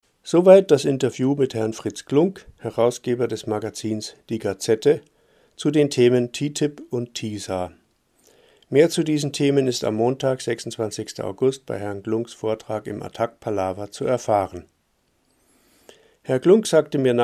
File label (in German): Ansage; Attac; LoRa